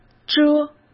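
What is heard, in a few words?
"遮", Mandarin Chinese; hsk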